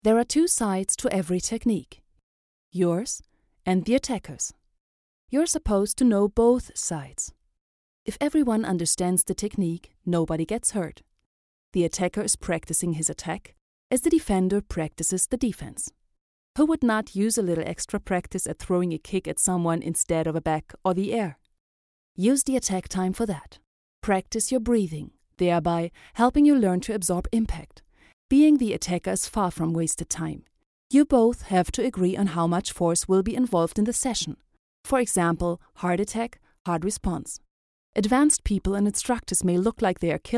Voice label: explanatory video kenpo karate english